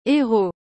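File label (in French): "heros", French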